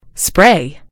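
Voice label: "spray", British English; gcompris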